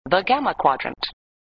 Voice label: Voice Computer "The Gamma Quadrant"